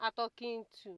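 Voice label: "atokintu", Nigerian Pidgin, female; pcm-ng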